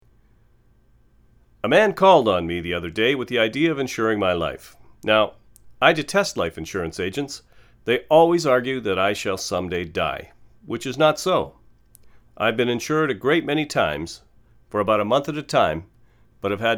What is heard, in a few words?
AT4040 anno 2ft away